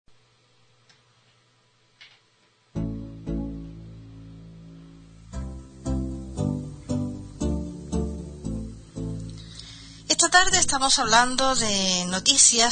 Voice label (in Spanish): Entrevista, Radio; Radio Costera de Las Palmas